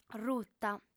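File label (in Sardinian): "ruta", Sardinian, female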